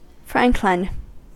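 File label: "franklin", American English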